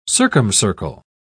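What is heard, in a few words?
"circumcircle", British English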